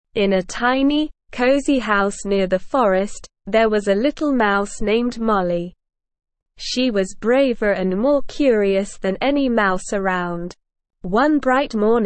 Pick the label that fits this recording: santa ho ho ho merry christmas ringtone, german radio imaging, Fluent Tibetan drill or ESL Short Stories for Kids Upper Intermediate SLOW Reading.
ESL Short Stories for Kids Upper Intermediate SLOW Reading